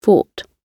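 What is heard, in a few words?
"fought", British English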